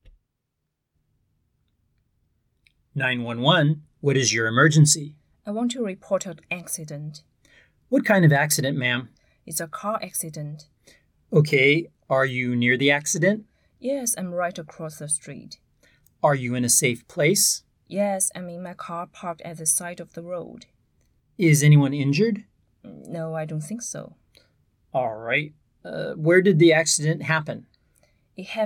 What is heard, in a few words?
911 Call